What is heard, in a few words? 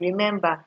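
"remember", Nigerian Pidgin, female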